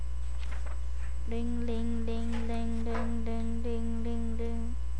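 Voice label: "ring", spoken word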